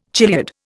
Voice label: "chiliad", Indian

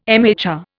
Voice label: "MHR", Indian

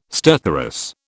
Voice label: "stertorous", British

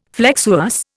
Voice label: "flexuous", Indian

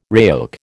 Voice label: "RAOC", American

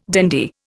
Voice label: "dandy", Indian

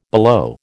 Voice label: "below", American

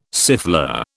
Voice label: "siffleur", British

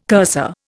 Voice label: "cursor", Indian

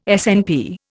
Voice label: "SNP", Indian

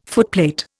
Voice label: "footplate", Indian

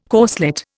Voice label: "corslet", Indian

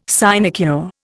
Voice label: "sinecure", Indian

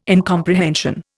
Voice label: "incomprehension", Indian

